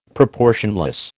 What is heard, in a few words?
"proportionless", American